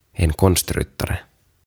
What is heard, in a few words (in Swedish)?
"En Konstryttare" "Taitoratsastaja"